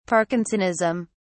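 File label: "parkinsonism", British English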